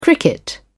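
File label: "cricket", British English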